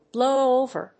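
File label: "blow over", English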